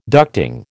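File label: "ducting", American English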